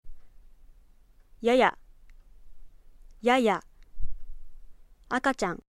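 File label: "baby", Aizu dialect Japanese; akatyan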